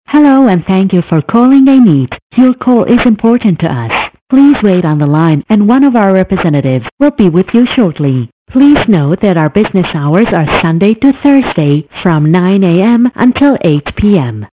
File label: en speech